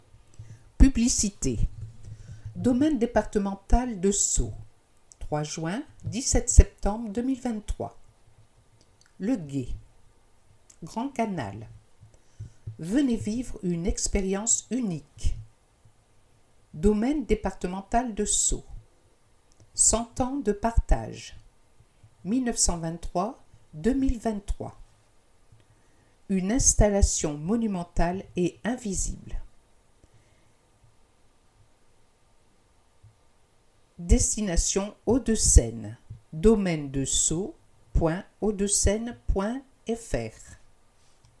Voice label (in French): Publicites; L'Abeille 50